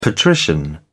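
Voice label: "patrician", British English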